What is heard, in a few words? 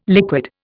"liquid", Indian